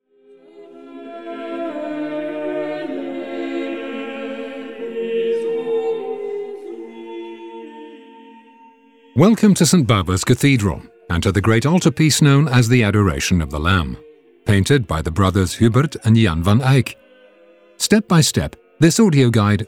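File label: English; LamGods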